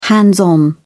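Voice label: "hands-on", British English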